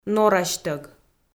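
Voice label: "норасьтӧг", Komi-Zyrian, female; audio dict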